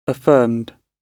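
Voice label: "affirmed", British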